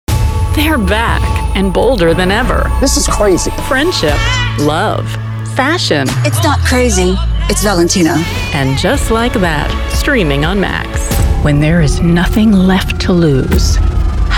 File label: Promo, Female Voice Over